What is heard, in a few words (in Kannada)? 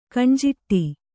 "ಕಣ್ಜಾಡೆ", Kannada